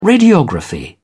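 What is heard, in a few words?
"radiography", British English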